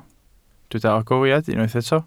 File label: "agoriad", Welsh